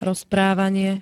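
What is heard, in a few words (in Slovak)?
"rozprávanie", Slovak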